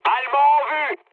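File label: French enemy detected mood med; War Thunder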